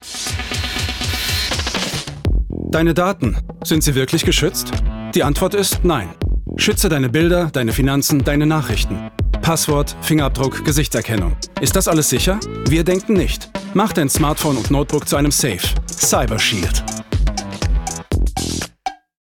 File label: Commercial; CYBERSECURITY